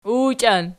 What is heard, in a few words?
"uwchian", Archi